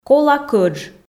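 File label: "кола кӧдж", Komi, female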